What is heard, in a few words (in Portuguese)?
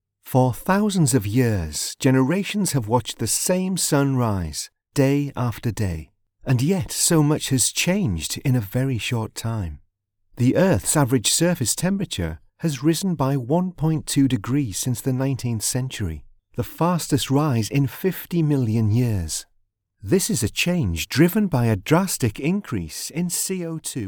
Ingles; locutores nativos